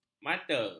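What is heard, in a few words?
"mata", Malay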